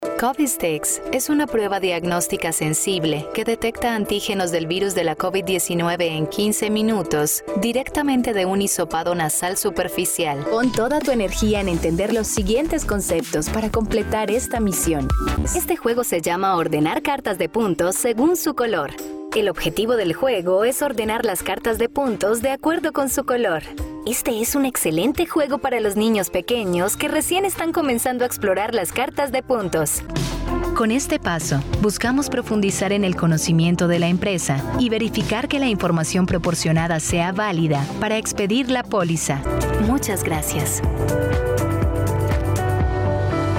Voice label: spanish latin american e-learning

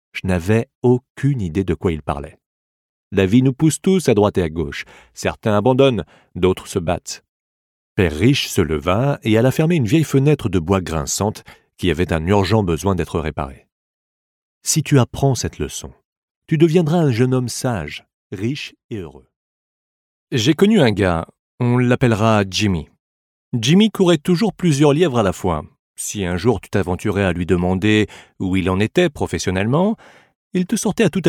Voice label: french audiobooks

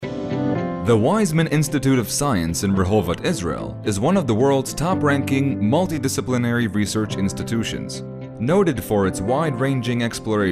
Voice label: english e-learning

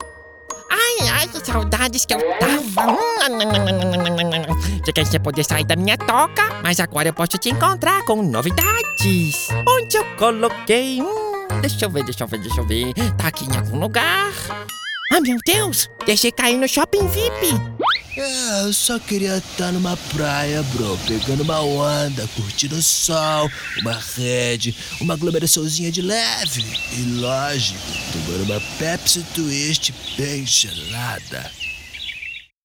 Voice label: portuguese brazilian animation

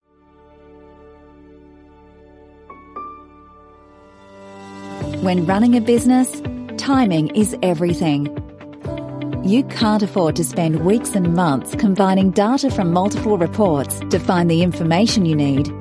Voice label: english australian corporate videos

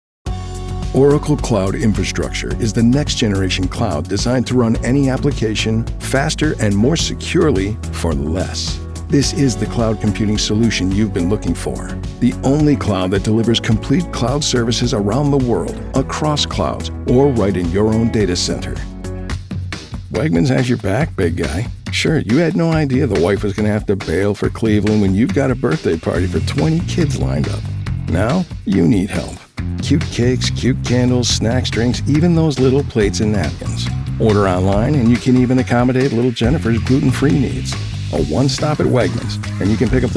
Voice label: english american corporate videos

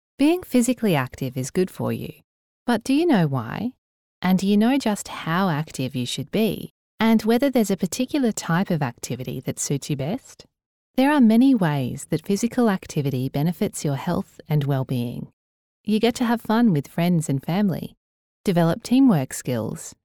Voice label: English Australian e-learning

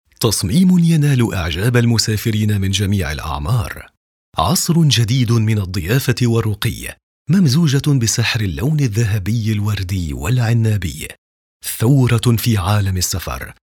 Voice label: arabic corporate videos

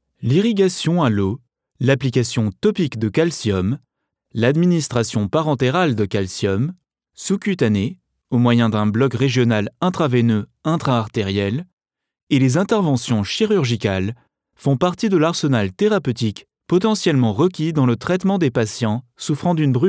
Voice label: french medical narration

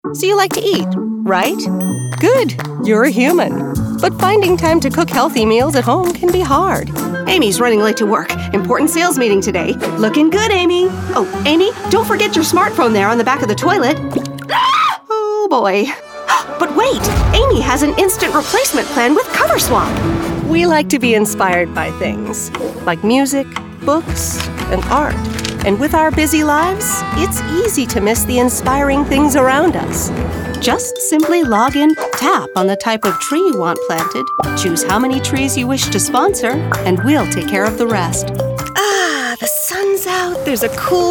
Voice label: english american explainer videos

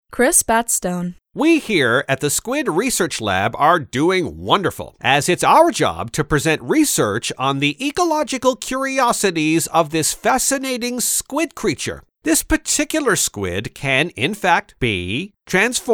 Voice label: english canadian video games

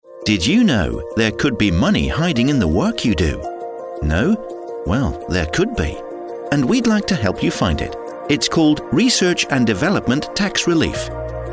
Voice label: english british corporate videos

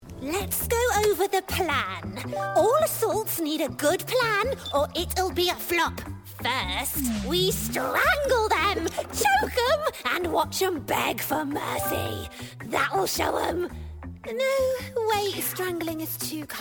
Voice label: english british video games

